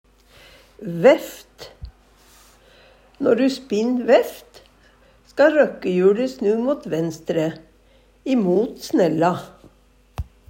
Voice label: "veft", Numedalsmål; veften burde spinnast av vinterull (vårveften)

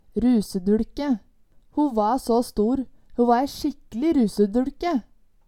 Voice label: ruseduLke, Numedalsmål

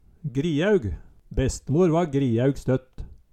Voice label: griæug, Numedalsmål

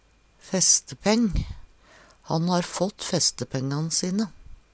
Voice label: festepeng, Numedalsmål; Han ha fått festepengan sine.; Substantiv hankjønn

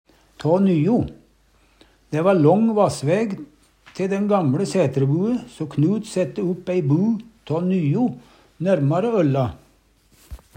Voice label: tå nyo, Numedalsmål; Dæ va lång vassvæg te den gamLe sætrebue, so Knut sætte upp ei bu tå nyo nærmare ølla.

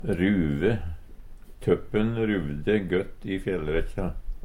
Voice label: ruve, Numedalsmål

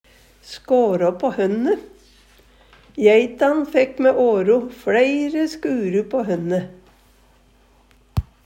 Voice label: "skara pa honne", Numedalsmål; Uttrykk; Jeitan fekk mæ åro fleire skuru på hønne.

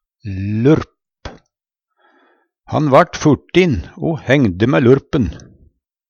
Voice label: lurp, Numedalsmål; Substantiv hankjønn

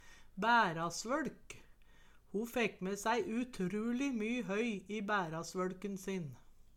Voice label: "bærasvøLk", Numedalsmål

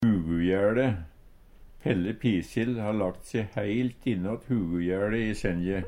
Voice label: hugujaele, Numedalsmål; Pelle pisil har lagt se heilt innåt hugujæLe i sengje.

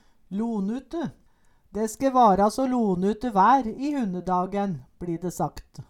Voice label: lonute, Numedalsmål

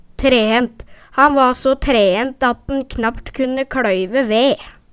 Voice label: trehent, Numedalsmål; Ordet er ubøyeleg.

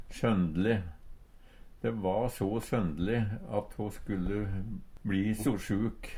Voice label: "sondele", Numedalsmål; søndle; sørgeleg; Adverb

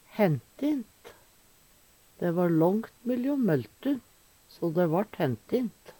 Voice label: hentint, Numedalsmål; De va långt myljom møltun, so de vart hentint.; Planteriket

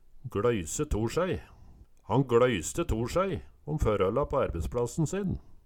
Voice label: gLoyse tor saei, Numedalsmål

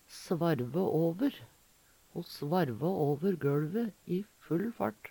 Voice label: svarve åver, Numedalsmål; Uttrykk

